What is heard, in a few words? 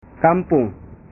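"kampung", Indonesian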